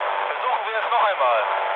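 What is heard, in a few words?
German bomb failure mood low, speech; War Thunder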